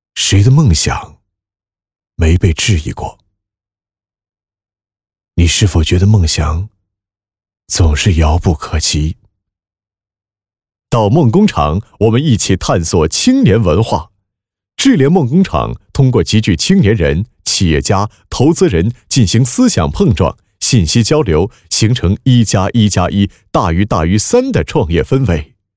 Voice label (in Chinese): 旁白